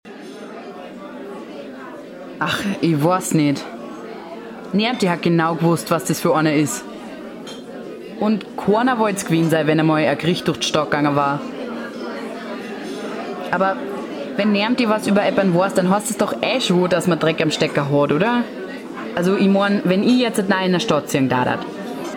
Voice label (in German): Bayrisch